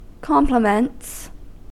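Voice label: "compliments", US English; pronunciations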